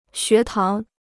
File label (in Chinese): "学堂", Chinese